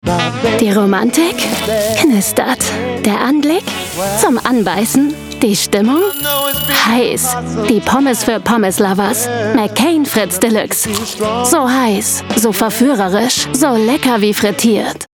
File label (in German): Funkspot; McCain